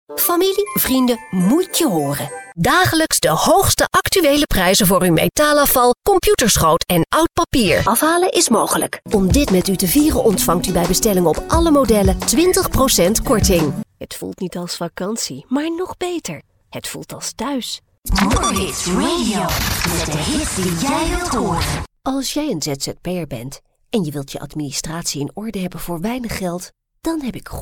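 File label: Dutch female commercial; voice demos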